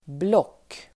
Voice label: "block", Swedish

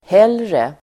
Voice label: "hellre", Swedish

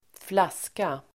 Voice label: "flaska", Swedish

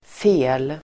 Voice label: "fel", Swedish

